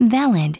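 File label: "valid", English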